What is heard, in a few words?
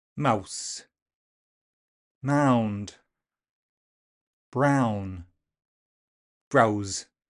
Welsh